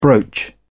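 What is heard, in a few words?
"brooch", British English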